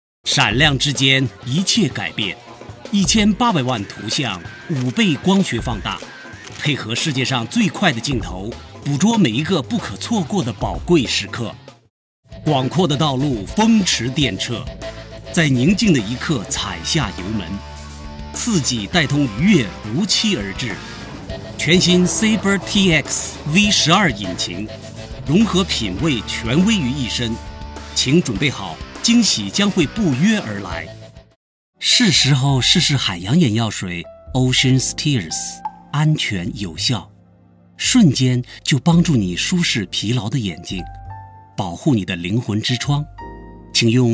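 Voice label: Mandarin TVC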